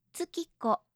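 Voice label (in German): "tsukiko", japanische Mädchennamen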